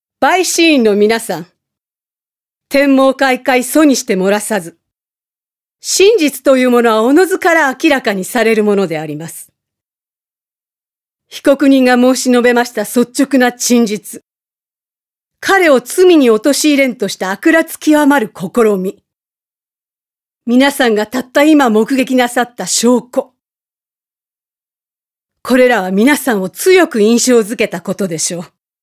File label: female voice